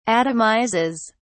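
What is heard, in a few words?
"atomizes", English, uk British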